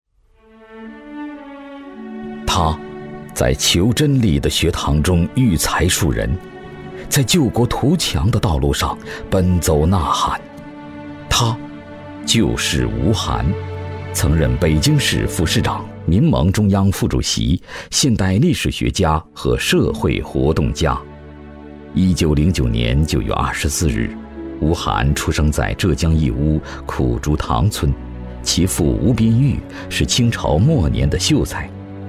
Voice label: 男 人物宣传片 大气抒情; 高端内涵 质感磁性

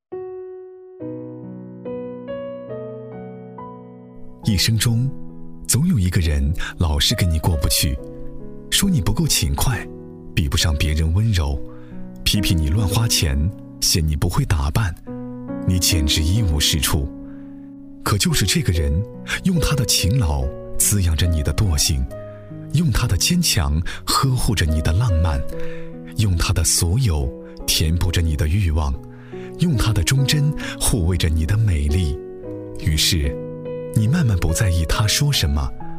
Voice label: 男 情感讲述; 大气磁性 高端大气

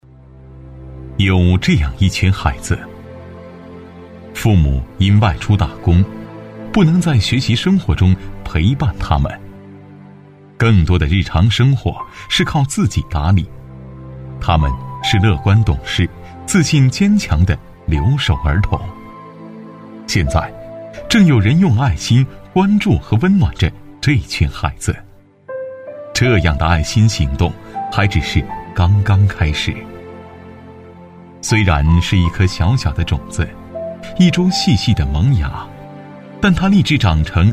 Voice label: 男 公益宣传片 感动; 稳重磁性; 深情感人; 关爱留守儿童

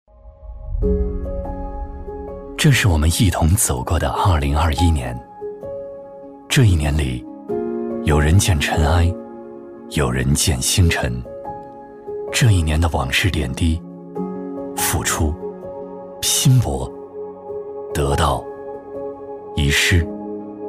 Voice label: 男 走心旁白 磁性走心; 刚柔并济 质感磁性; 启程2022

